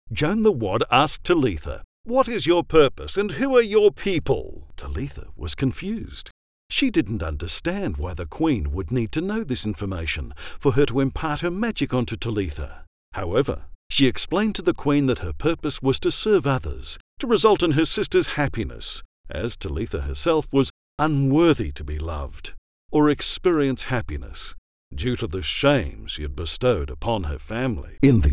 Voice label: Audio Book